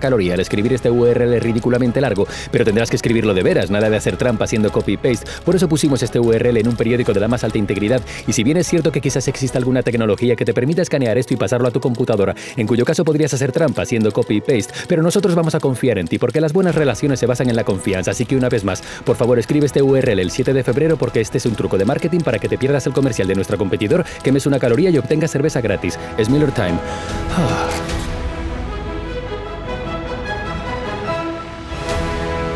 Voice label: spanish latin american